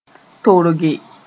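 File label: "torge", Mooré; to drip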